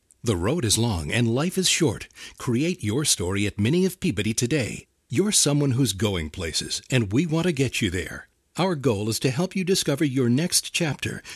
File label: Male